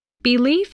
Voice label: "belief", English

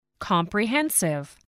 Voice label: "comprehensive", english

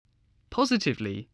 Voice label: "positively", English

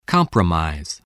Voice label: "compromise", english

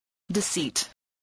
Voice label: "deceit", English

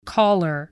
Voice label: "caller", spelling

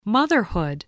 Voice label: "motherhood", voice, spelling

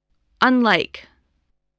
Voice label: "unlike", English spelling voice

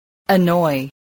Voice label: "annoy", spelling

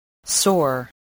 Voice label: "sore", English voice

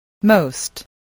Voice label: "most", voice, spelling

